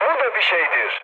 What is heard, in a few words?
trick, mood med, speech tur; War Thunder